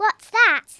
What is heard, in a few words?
what the, Speech Kidz; Worms Armageddon